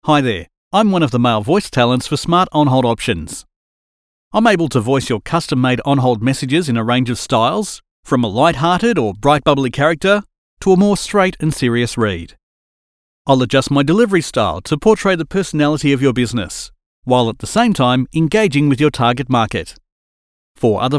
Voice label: MALE